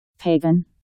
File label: "Pagan", English